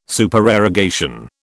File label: "Supererogation", British